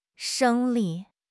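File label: "生理", Mandarin Chinese; HSK6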